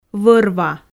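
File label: "вӧр-ва", Komi, female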